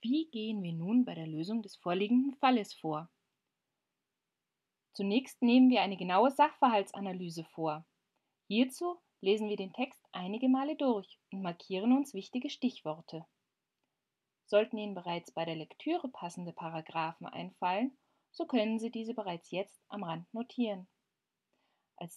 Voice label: Elearning